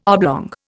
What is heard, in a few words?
"oblong", Indian